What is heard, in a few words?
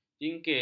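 "jingkir", Malay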